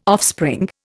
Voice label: "offspring", Indian